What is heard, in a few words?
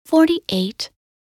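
"48", English; minute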